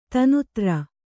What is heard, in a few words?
"ದೀನ್‍ದಾರ್", kannada